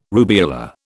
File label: "rubeola", British